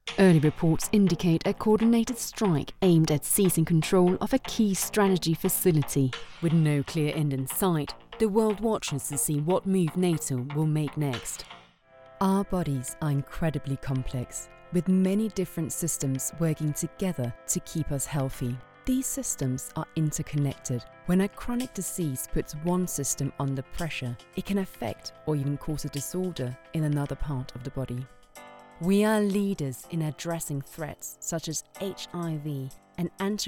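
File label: English Scandinavian F Voicereel; accent of denmark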